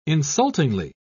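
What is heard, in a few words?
"insultingly", British English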